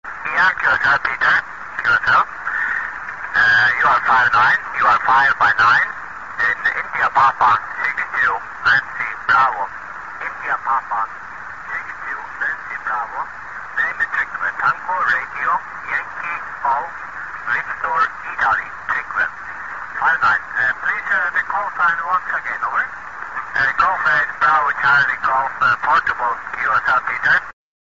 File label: qso